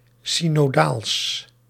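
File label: "synodaals", Dutch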